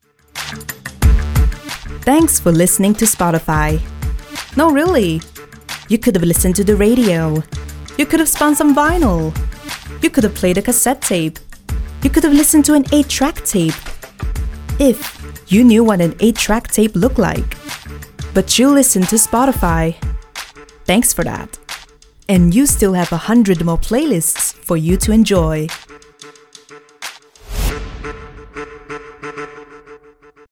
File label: ADVERT